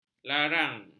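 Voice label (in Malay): "larang", Malay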